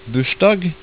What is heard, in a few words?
"bursdag", Norwegian